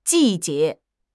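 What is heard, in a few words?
"ji4 jie2", Chinese; HSK; HSK3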